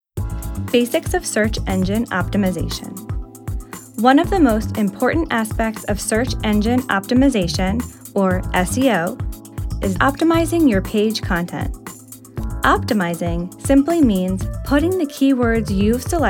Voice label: Elearning

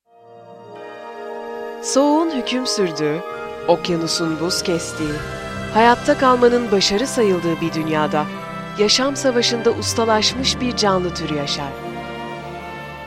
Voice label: documentary

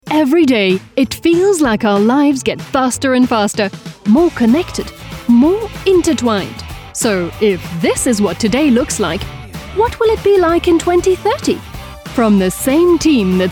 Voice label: English Commercial Mix

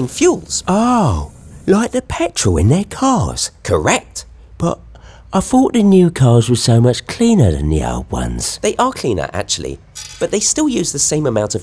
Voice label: Save Energy Animation Two Voices

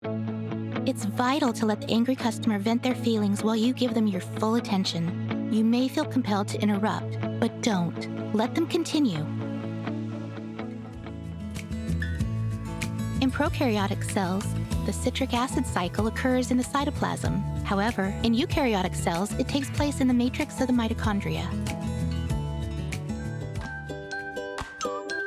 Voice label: explainer

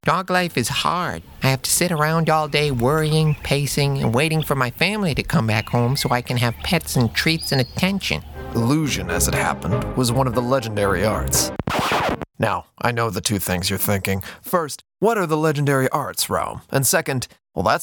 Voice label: Character

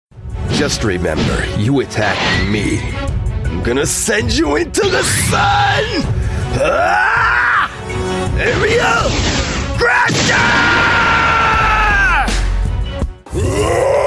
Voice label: Character

